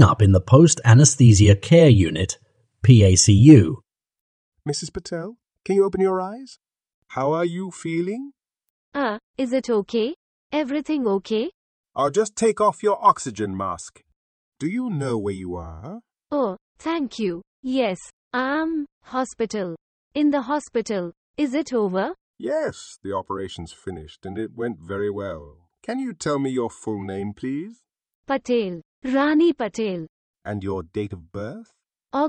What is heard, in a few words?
Conversation; Bedside Manner Intermediate